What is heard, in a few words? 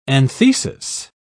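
"anthesis", British English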